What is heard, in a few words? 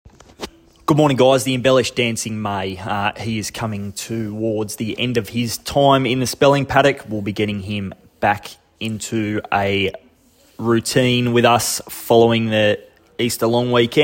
voice note; Dancing May Embellish NZ